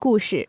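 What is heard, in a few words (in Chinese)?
"故事" Chinese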